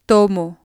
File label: "tomo", Spanish